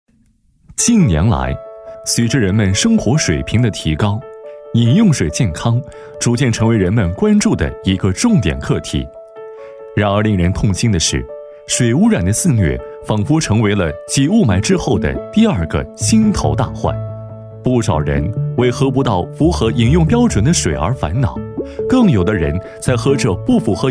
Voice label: 男 大气正式; 水污染

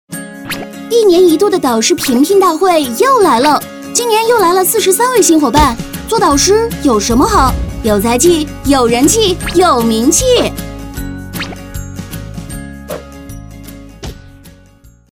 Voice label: 女 导师; 飞碟

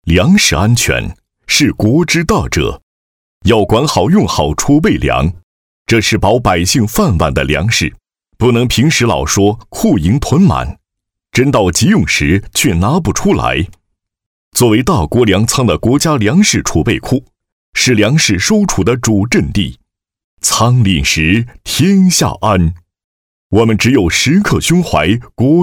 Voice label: 男, 宣传片, 粮食安全

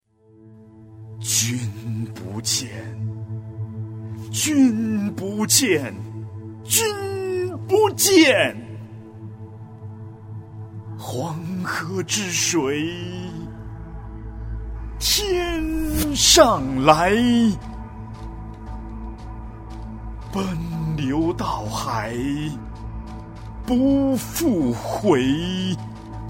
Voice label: 男 朗诵 铿锵起伏诗朗诵; 君不见

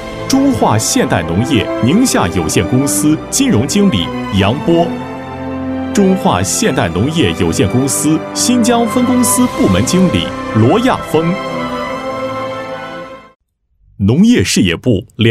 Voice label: 男 颁奖 大气 评选表彰

